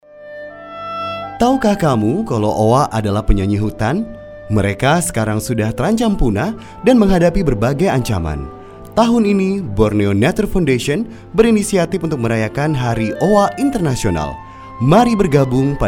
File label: INDONESIA FORMAL